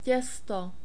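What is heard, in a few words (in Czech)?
"testo", czech, male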